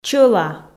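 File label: "чӧла", Komi, female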